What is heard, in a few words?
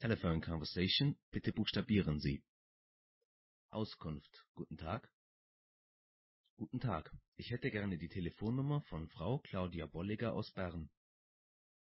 German Dialogue - Directory Assistance